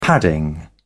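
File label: "padding", British English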